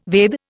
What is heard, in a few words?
"Veda", Indian